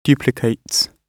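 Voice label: "duplicates", British English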